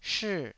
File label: "shii4", taiwanese Syllables